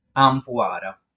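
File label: "Ampuara", Sardinian word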